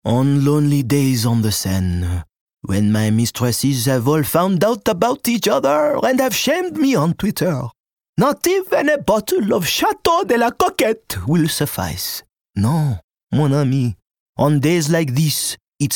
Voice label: English Accent French; OSM Talent